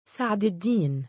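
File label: "sa3duddiin", Arabic, male; given name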